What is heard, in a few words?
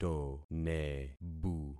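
"tonebu", concatenated syllables; Affixing experiment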